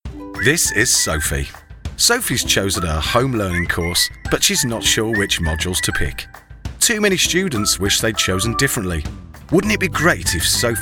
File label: English United Kingdom